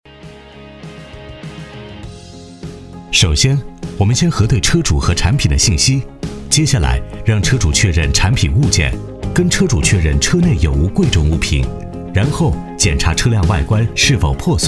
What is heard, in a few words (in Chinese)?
男 专题 产品解说类 自然 时尚; 车米1.5版